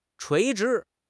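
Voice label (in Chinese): "垂直", Mandarin Chinese; HSK6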